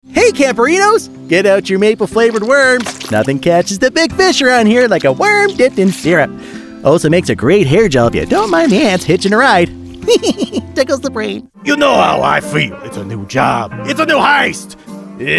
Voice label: ANIMATION